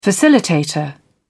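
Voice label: "facilitator", British English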